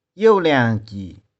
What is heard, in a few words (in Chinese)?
"iou2liang2ki0", 玉環